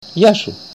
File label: "hello", Greek